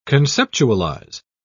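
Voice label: "conceptualise", British English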